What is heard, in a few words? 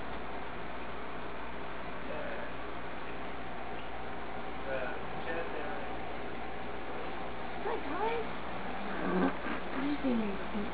"Sleep Tight" sound; EVP, or electronic voice phenomena, are said to be the disembodied voices from spirits